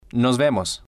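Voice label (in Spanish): "nos vemos", Spanish, male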